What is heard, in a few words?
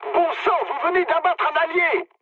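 player killed ally, mood medium, French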